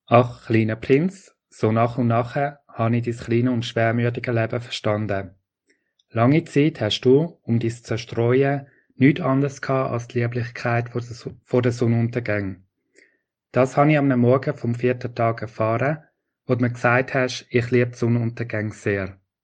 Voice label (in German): Deutsch Zuerich